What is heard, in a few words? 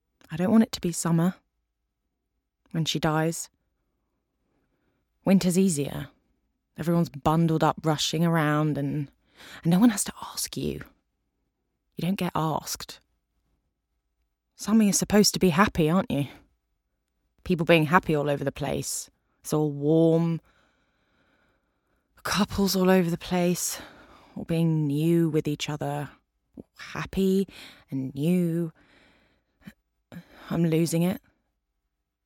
Drama